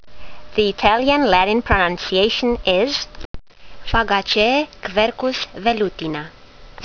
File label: latin; Quercus velutina